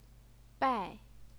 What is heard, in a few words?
"bai4", Chinese pinyin